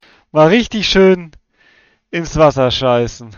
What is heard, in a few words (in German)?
"richtig schön", German